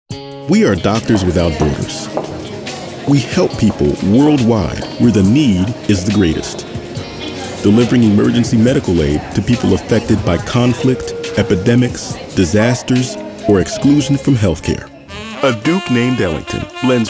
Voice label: narration